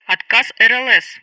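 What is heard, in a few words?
Speech Sound Russian Common Messages; Betty; RadarFailure; DCS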